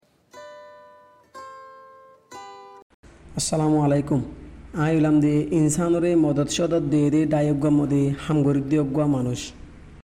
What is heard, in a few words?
Roghingya Awareness Message on Mosquito Bite